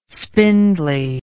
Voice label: "spindly", English